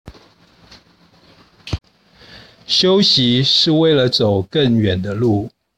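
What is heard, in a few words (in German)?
Chinesisches Sprichwort Aussprache; Kleine Pausen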